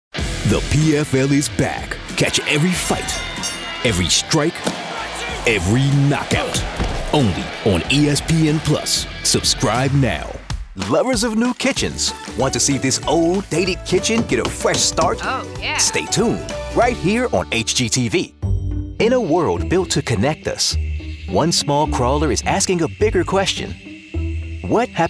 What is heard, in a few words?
voiceover commercial men; new york